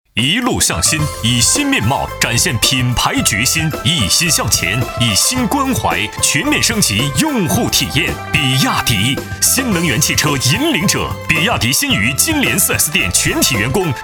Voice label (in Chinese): 新春汽车广告; 比亚迪